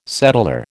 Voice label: "settlor", American